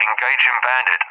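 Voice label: "engaging bandit", English, UK; DCS; Allied Flight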